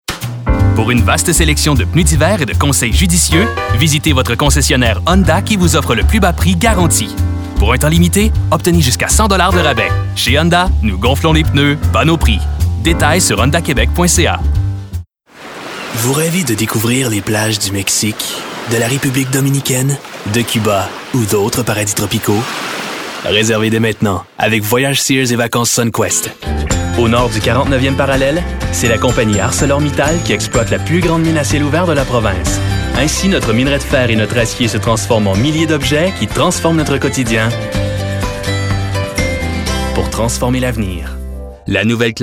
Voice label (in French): Pub Annonceur